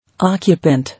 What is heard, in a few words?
"Occupant", English